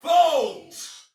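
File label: See Player Soldier Male; Sinecure; en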